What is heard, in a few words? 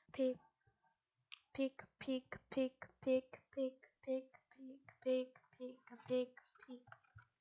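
"pick", spoken word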